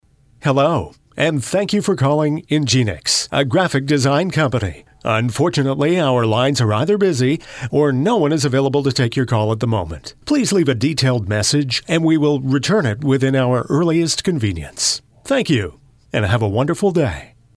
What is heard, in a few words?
TELEPHONE MESSAGING